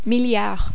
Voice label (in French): milliard, pronunciation french numbers; pronunciation french alphabet numbers